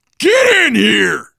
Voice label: stay together inside, voice, biker; Left 4 Dead 2; left4dead2 dlc3; survivor

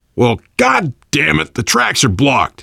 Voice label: world farmhouse, voice, biker

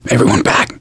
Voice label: backup quiet, voice; gambler

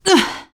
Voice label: shoved, teen girl; player; survivor; voice

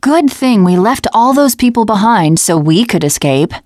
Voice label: teen girl

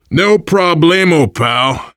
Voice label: you are welcome, voice, biker

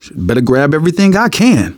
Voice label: take pills, survivor voice; manager; Left 4 Dead 2; left4dead2 dlc2

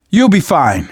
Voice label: revive friend, survivor voice manager

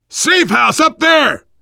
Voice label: world farmhouse, voice, biker

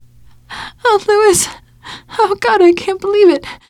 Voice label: grief manager, teengirl; player; survivor; voice

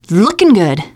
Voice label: taunt, teen girl; player; survivor; voice

